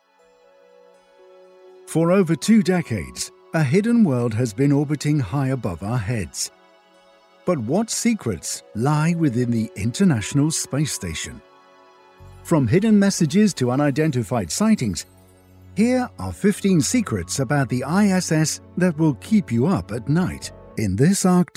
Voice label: english british documentaries

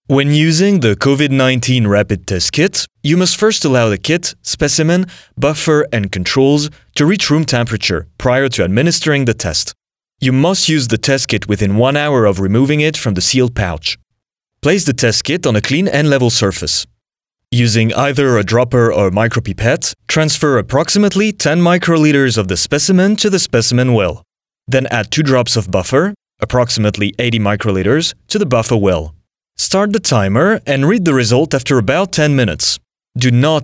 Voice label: english medical narration; actor de voz profesional francés

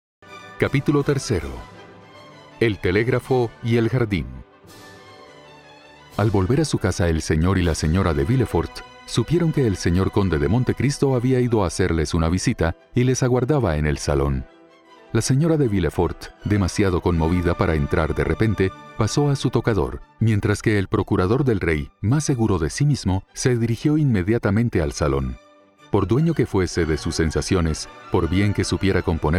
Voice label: spanish colombian audiobooks

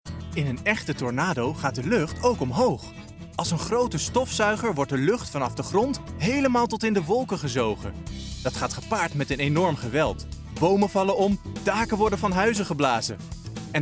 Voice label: dutch tv shows

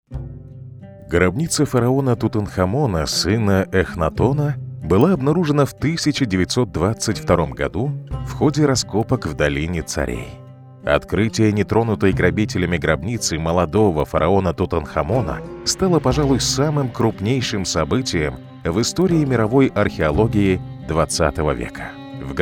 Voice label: russian documentaries

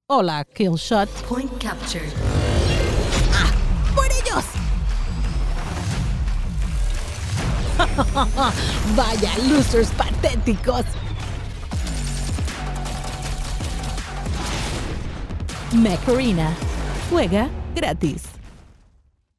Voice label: spanish latin american video games; espagnole neutre - latine

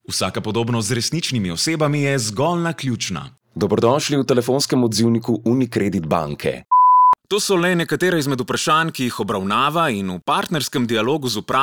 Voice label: slovenian commercial

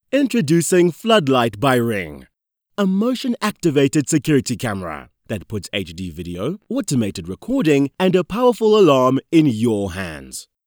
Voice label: english south african promos

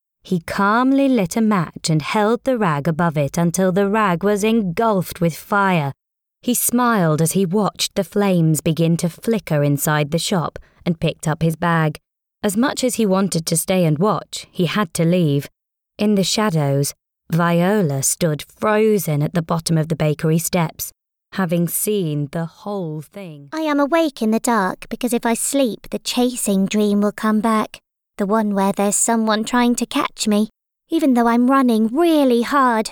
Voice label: english british audiobooks

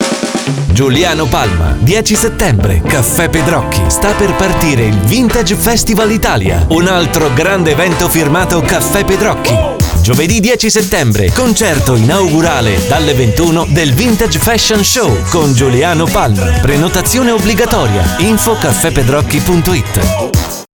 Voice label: italian commercial

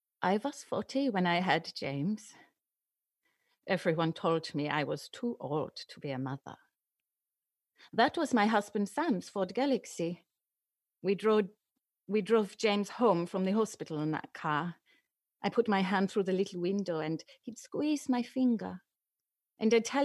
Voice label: english british others

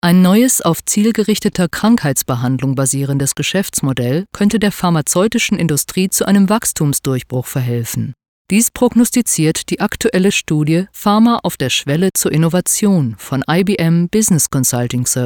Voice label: german

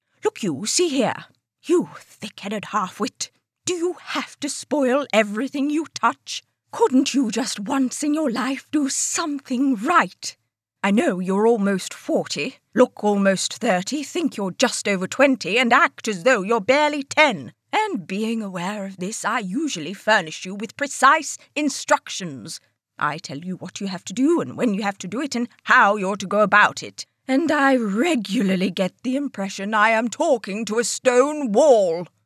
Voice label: english british animation

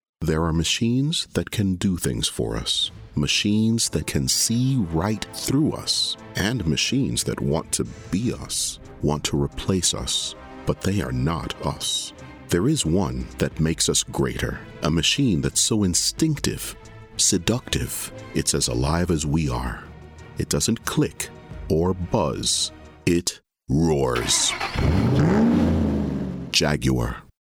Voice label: english canadian automotive

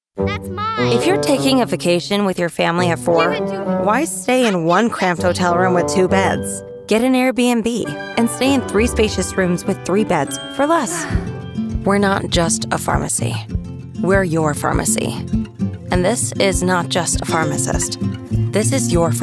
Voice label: english american commercial; United States; professional, working American

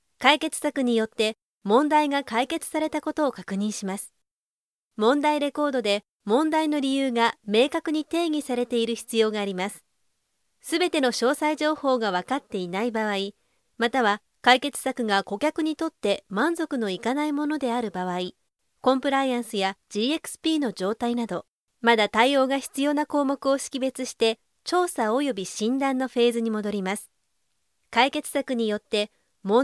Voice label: japanese e-learning

